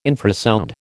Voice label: "infrasound", American